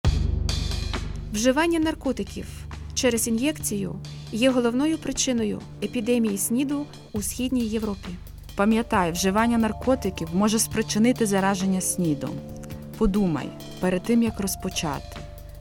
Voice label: spot ukrainian music